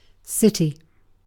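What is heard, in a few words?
"city", British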